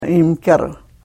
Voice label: karuk